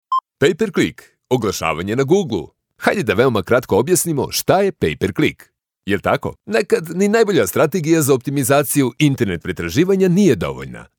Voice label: e-learning

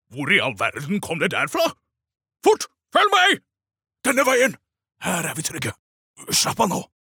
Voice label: Norwegian dub, male

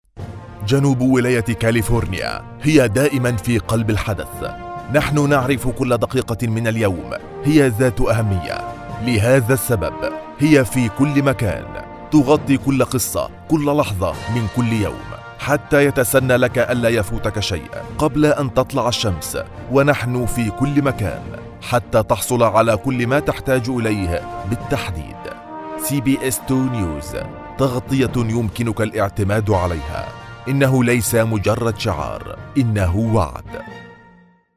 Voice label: arabic commercial

